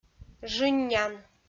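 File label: "жыннян", Komi, female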